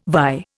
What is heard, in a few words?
"vie", Indian